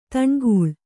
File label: "ದನುಕುಲ", Kannada